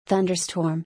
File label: "Thunderstorm", English; thunder-and-lightning-in-english